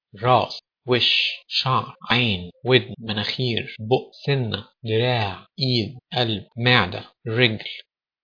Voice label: egyptian